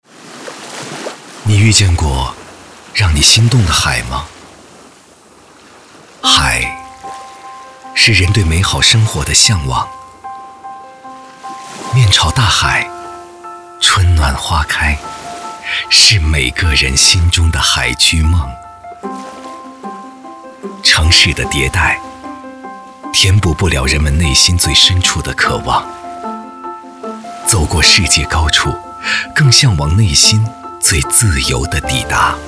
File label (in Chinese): 男, 独白; 融创东海湾